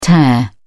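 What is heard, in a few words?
"tare", British English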